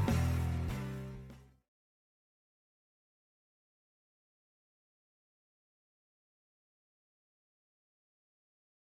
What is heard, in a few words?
Radio, Rock in Rio